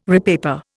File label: "repaper", Indian